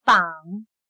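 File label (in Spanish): "膀", chino mandarin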